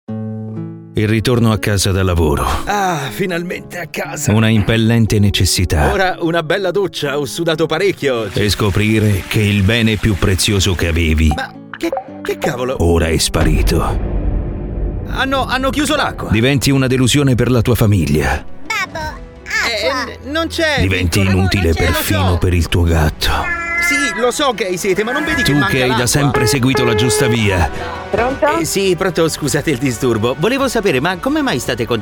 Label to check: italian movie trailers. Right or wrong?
Right